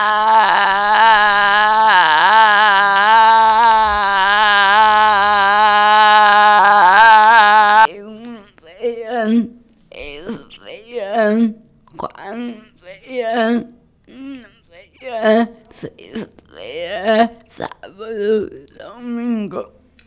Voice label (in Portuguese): Ressonância Nasal compensatório